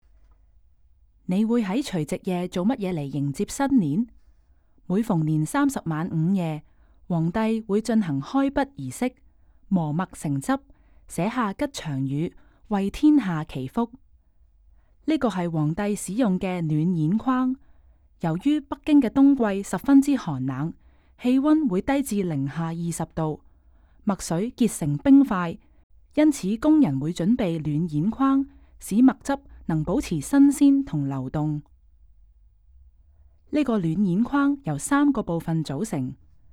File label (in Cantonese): Can VO; from dawn to dusk